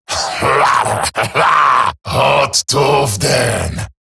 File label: Cover Me Eximus Grineer Male; Battle Barks